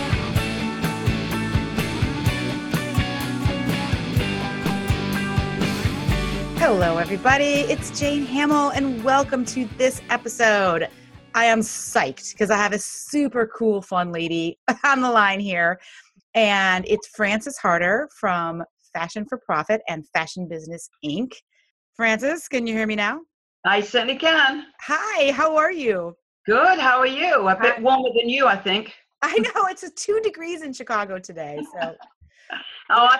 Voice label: podcast